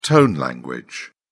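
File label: "tone language", British English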